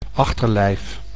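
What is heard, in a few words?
"achterlijf", Dutch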